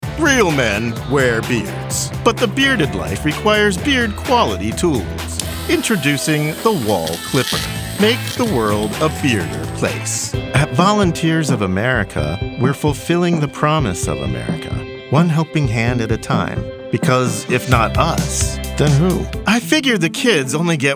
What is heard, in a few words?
commercial, Male